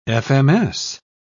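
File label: "fms", British English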